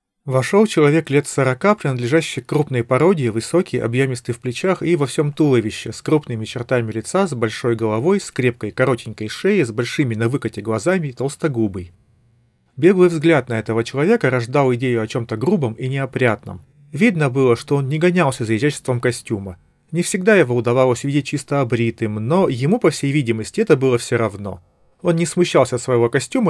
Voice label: Recorder; Запись на профессиональный рекордер; ugreen max5c